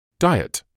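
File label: "diet", British English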